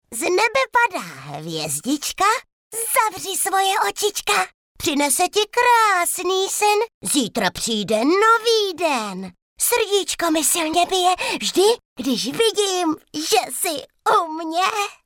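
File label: female Czech drama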